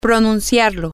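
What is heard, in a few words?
female, spanish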